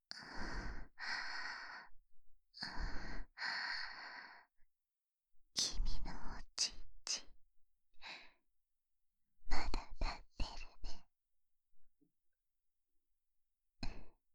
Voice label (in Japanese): 進行用台詞, ASMR, 左耳